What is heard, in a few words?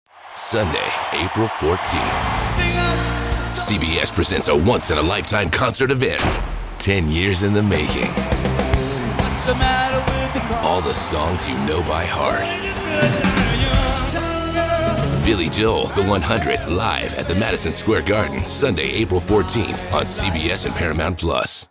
Promo